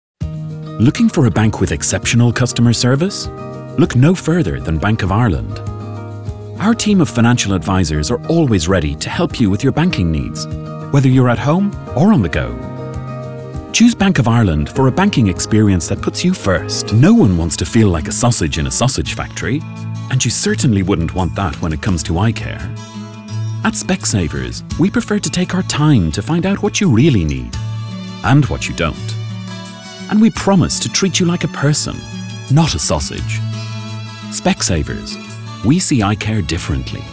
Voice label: Commercial

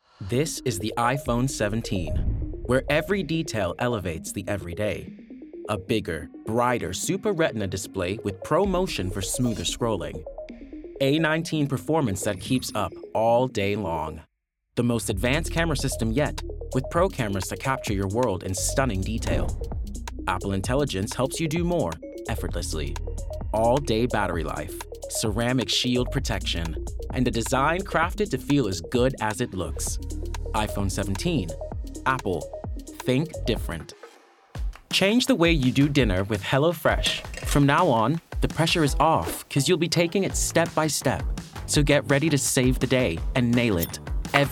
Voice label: Commercial Reel

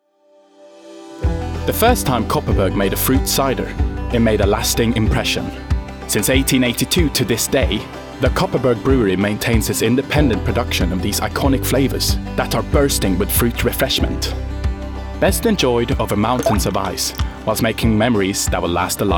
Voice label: Commercial Scandi accent

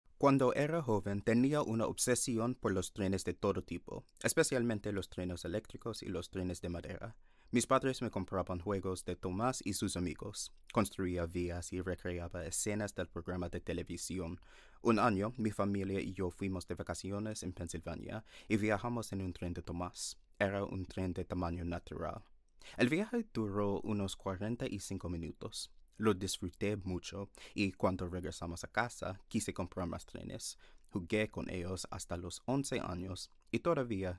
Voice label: Spanish Language Sample

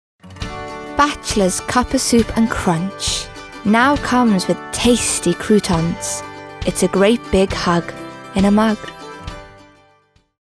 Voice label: Ads

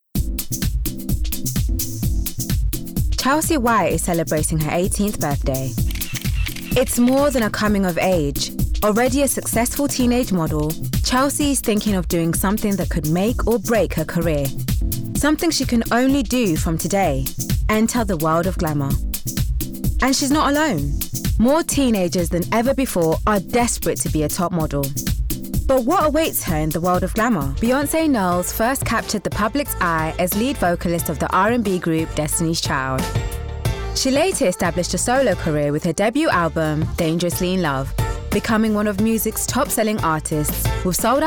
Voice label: Narrative

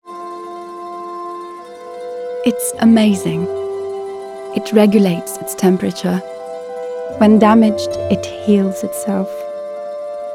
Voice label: Commercial English; Vaseline

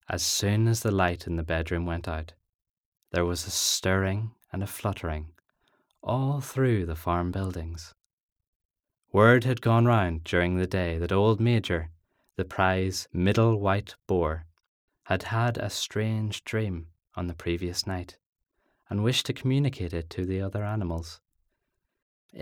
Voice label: Narrative

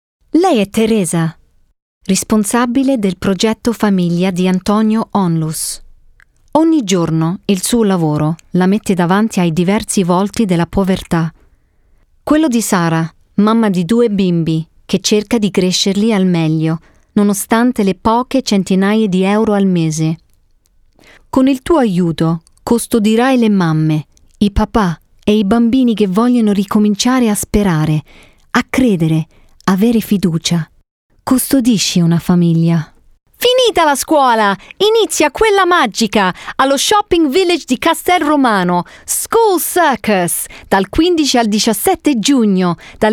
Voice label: Italian Commercials

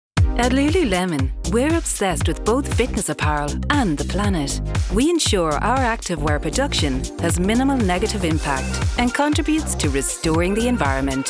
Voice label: Commercial

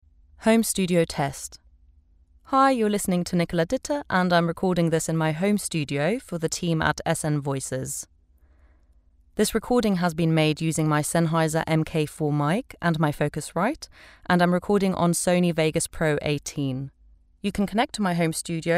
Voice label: HOME STUDIO SAMPLE

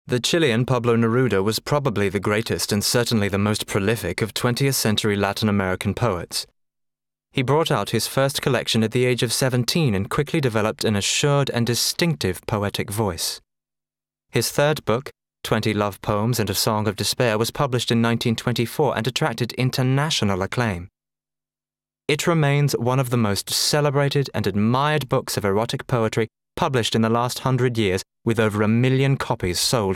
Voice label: narrative; Pablo Naruda

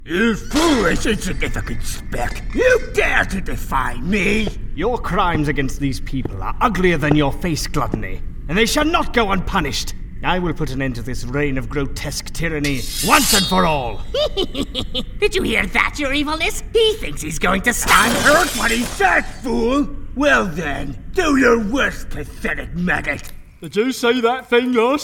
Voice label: Character Voice

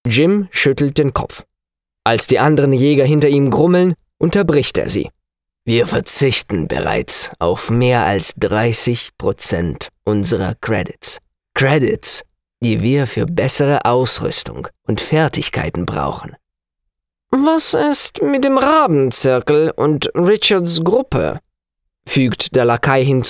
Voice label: Narration German

